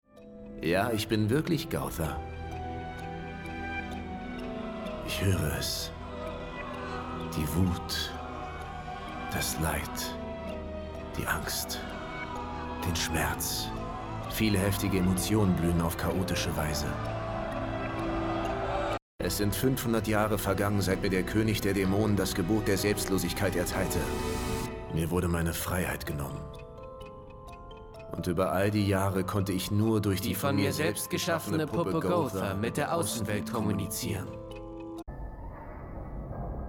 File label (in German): Animationsserie Synchron